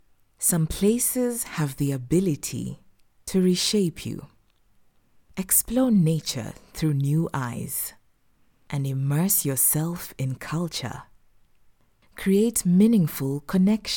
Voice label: female african kenyan english with african accent documentary narration